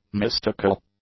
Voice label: "mystical", English; adjectives